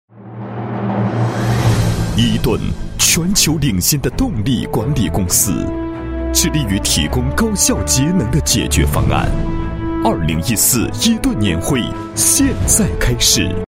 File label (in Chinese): 男, 国语男声配音; 新伊顿 共梦想